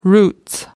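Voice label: "roots", English, British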